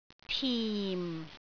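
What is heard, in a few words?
"tim4", Cantonese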